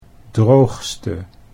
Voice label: "droogste", Dutch